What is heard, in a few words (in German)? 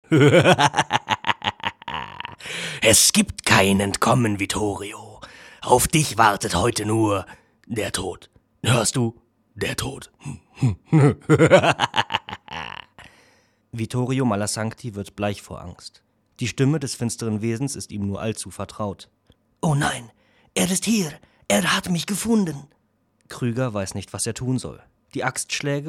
hoerspiel